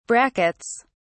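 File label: "brackets", English, British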